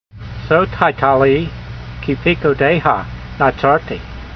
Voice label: voice, hebrew language; Psalm 119:52; zahartee meeshpateyha may'o'lam yehovah va'etneham